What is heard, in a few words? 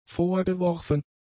"vorgeworfen", German; adjectives